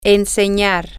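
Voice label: to Teach, Spanish, female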